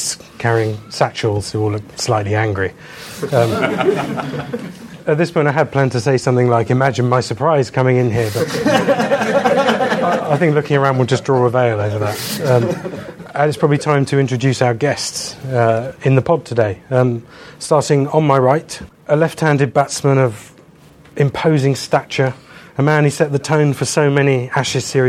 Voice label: cricket podcast